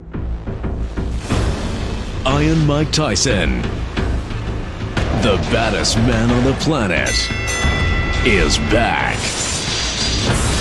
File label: Asian English; Iron-Mike-Tyson